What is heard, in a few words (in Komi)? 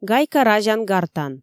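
"гайка разян-гартан", Komi, female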